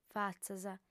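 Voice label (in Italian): "fatzas", lingua sarda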